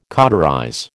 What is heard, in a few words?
"cauterize", American